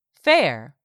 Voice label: "fair", English; 3000 words

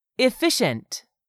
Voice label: "EFFICIENT", english; 3000 words

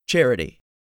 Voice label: "CHARITY", english; 3000 words

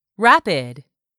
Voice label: "rapid", English; 3000 words

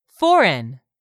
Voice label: "foreign", English; 3000 words

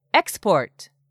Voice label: "EXPORT", english; 3000 words

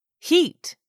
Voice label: "heat", English; 3000 words

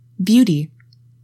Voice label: "BEAUTY", english; 3000 words

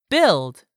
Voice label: "build", english; 3000 words

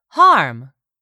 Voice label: "HARM", english; 3000 words

